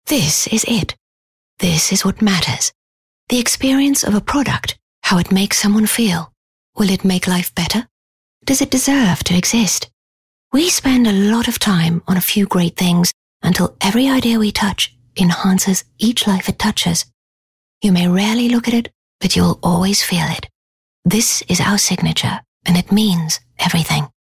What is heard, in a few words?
English Corporate; OSM Talent